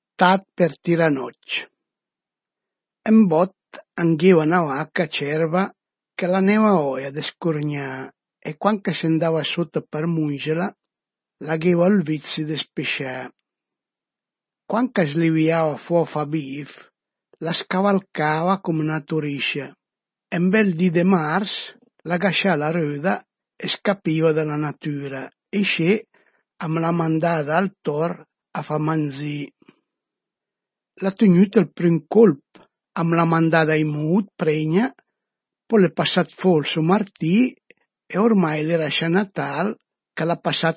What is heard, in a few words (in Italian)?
Dialetto di Albosaggia; Tàat par tirà nòc'